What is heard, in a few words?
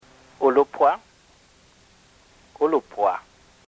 Ulupwa, bemba